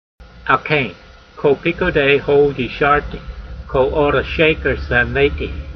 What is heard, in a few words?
voice, Psalm 119:128, hebrew language; Transliteration: al-kayn kol-peekooday hol yee'shartee, kol-o'rah sheyker sanaytee